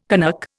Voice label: "Canuck", Indian

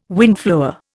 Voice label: "windflower", Indian

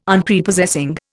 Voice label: "Unprepossessing", Indian

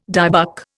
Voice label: "dybbuk", Indian

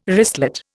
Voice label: "wristlet", Indian

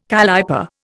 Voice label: "calliper", Indian

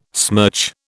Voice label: "smirch", British

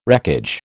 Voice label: "wreckage", American

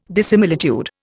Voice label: "dissimilitude", Indian

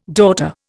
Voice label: "daughter", Indian

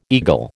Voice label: "eagle", American

